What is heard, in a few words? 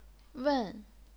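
"wen", chinese pinyin, fourth tone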